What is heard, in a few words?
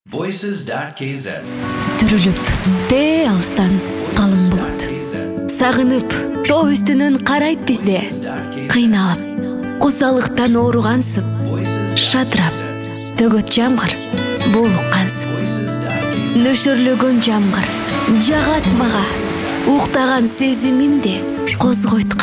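kyrgyz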